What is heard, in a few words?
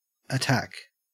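"attack" English, Australian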